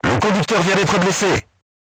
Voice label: voice message driver wounded, crew tanks french